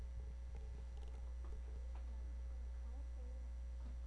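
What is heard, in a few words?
"bicycle", spoken word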